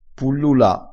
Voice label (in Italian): "pullula", crespinese